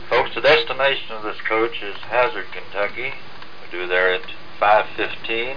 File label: BUS ANNC; 2000 Sound Effects; quotquip